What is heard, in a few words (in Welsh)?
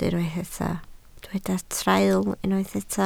"traul", Welsh